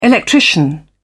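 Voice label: "electrician", British English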